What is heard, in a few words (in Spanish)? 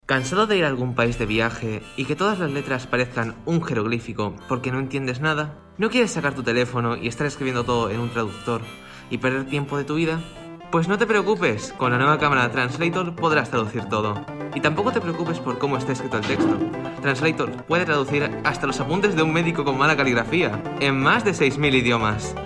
Anuncio